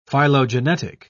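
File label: "phylogenetic", British English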